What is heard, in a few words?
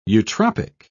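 "eutropic", British English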